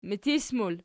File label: "mitis", Archi